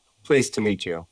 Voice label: Voice Acting es M